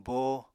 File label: "bóo", Apatani